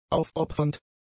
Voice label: "aufopfernd", German; adjectives

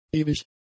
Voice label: "ewig", German; adjectives

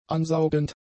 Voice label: "ansaugend", German; adjectives

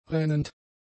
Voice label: "rennend", German; adjectives

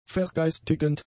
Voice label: "vergeistigend", German; adjectives

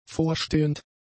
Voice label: "vorstehend", German; adjectives

